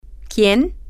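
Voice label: Spanish, who, female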